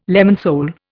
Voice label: "lemon sole", Indian